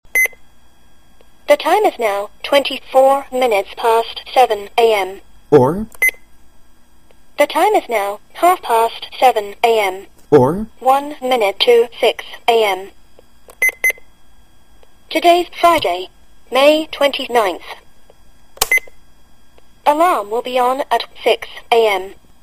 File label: atomic talking clock